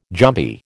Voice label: "jumpy", American